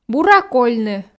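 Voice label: "бура кольны", Komi, female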